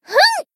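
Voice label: Voice attack, Japanese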